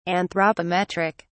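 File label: "anthropometric", English, British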